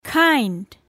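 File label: "kind", English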